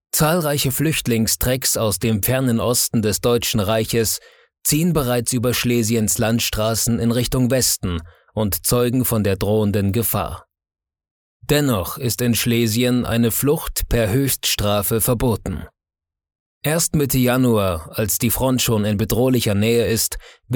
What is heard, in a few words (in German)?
doku flucht und vertreibung